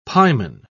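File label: "pieman", British English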